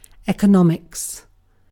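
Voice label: "economics", British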